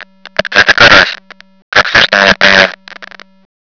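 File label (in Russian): карась, как слышно, radio; svencoop; paranoia; karas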